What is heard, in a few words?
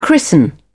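"christen", British English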